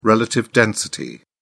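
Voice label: "relative density", British English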